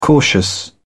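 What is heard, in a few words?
"cautious", British English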